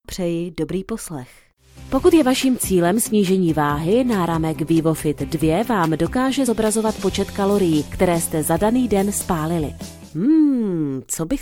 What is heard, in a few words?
Czech, female; lektoring